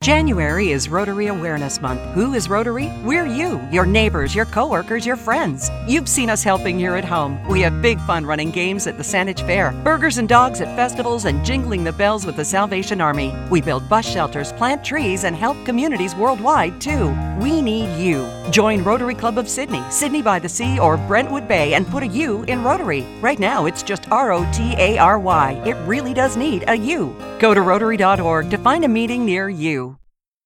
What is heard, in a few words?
english canadian promos